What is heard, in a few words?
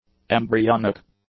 "embryonic", English; adjectives